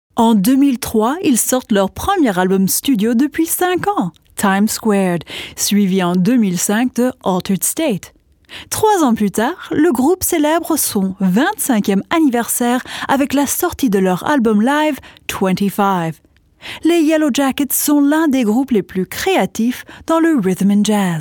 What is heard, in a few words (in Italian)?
FRANCESE DINAMICA